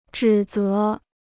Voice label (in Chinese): "指责", Chinese